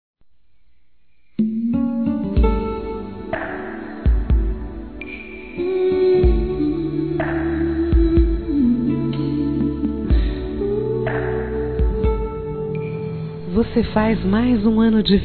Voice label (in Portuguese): NEUTRO (F)